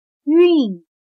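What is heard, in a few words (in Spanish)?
"运", chino mandarin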